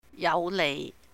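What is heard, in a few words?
"yáuh leih", beneficial, advantageous, Cantonese; 有利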